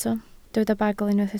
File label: "bagl", Welsh